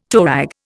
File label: "toerag", Indian